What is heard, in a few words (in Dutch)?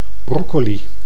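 "broccoli", Dutch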